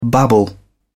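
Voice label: "babble", British English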